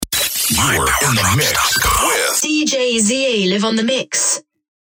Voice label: dj